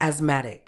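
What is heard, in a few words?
"asthmatic", British English; æsˈmæ.tɪk; ass-MA-tik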